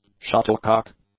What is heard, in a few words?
"shuttlecock", English